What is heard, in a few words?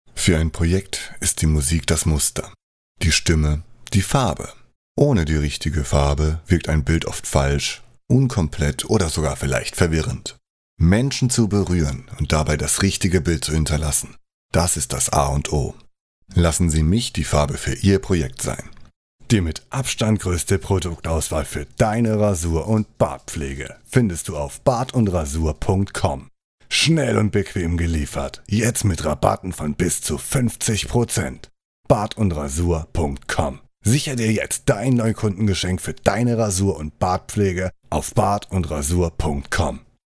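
German, male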